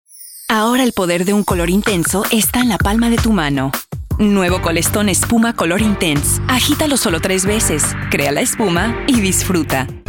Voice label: spanish